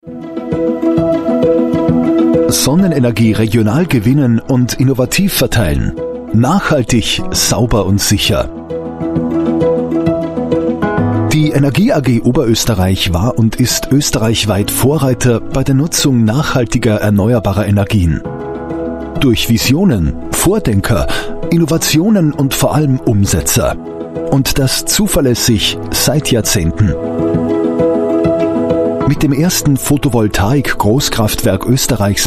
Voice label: german voice over male narrator

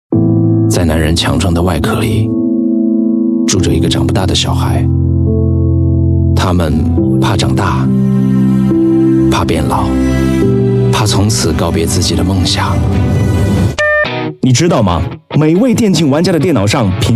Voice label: chinese voice over male commercial

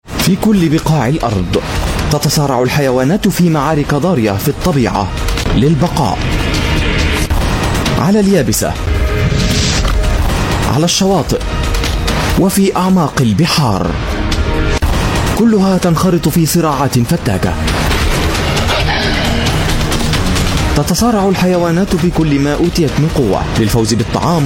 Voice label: arabic voice over male documentary

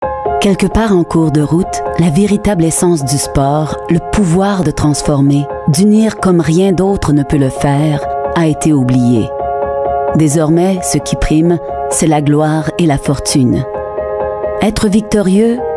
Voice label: french voice over female narrator

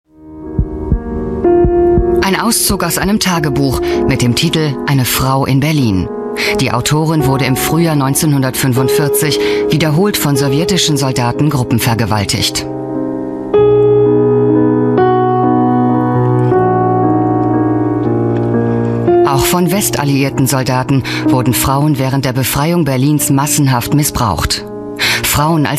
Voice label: german voice over female documentary

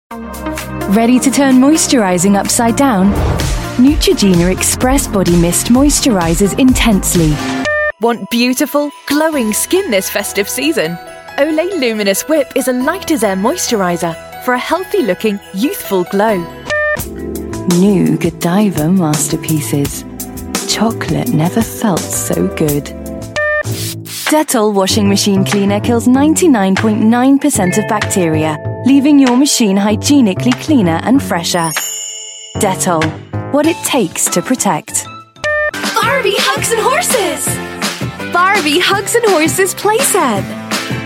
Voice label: english british voice over female commercial

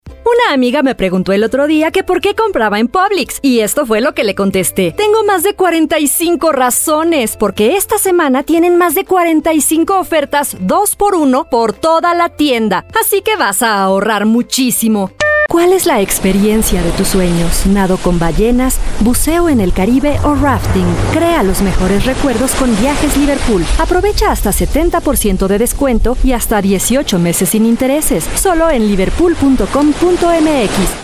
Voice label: spanish voice over female commercial

